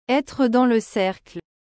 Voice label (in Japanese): "être dans le cercle", フランス語